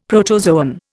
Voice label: "protozoan", Indian; Dictionary